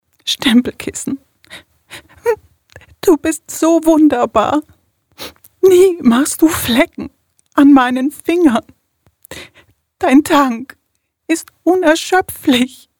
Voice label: traurig

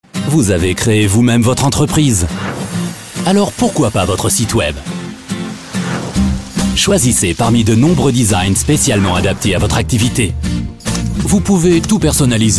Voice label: TV-Spot

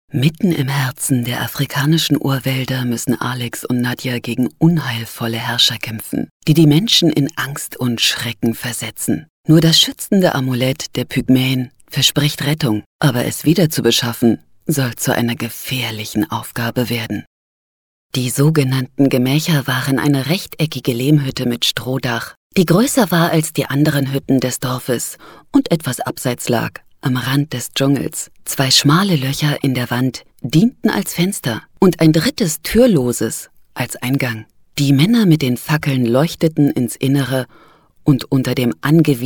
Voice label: Hörbuch

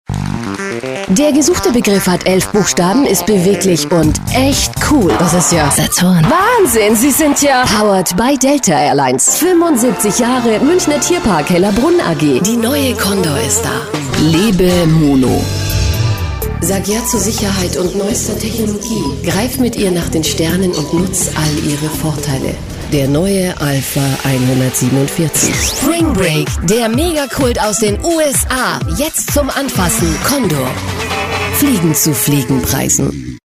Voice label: Werbung Mix

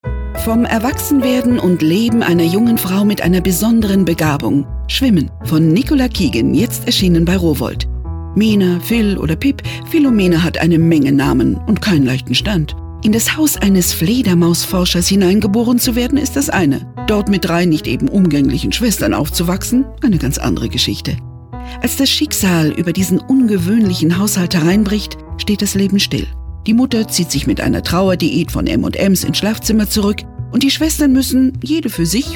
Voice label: Radio Buchtipp

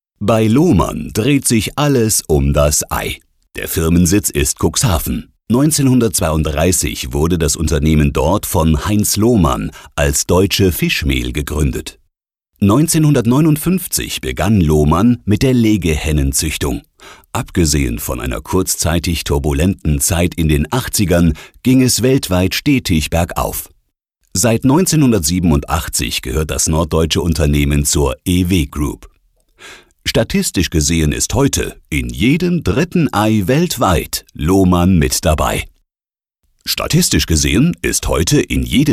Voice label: Imagefilm; Lohmann

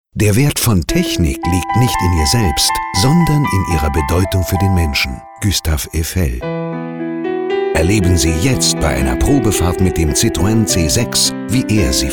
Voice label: Radiowerbung; Citroen

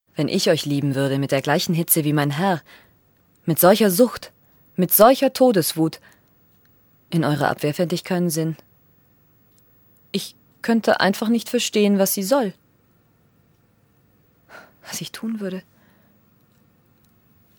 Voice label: Hörbuch; Shakespeare

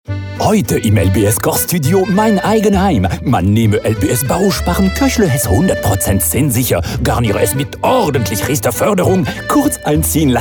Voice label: TV-Spot deutsch

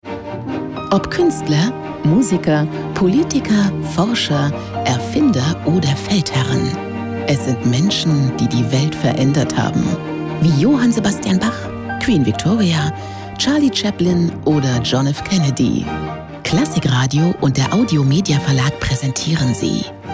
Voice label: Radio Trailer

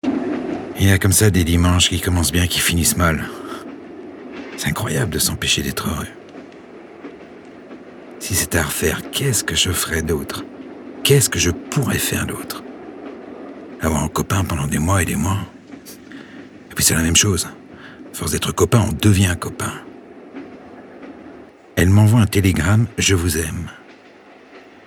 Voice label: Theater

